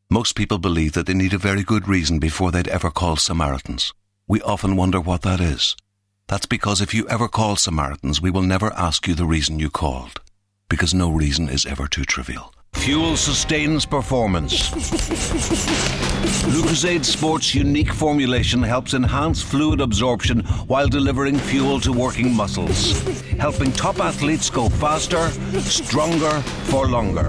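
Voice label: English Irish North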